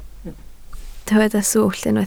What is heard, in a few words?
"swllt", Welsh